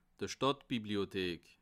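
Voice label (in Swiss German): "stadtbibliothek", Bas Rhin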